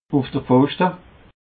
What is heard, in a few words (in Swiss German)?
"uf de pfoschte", alsace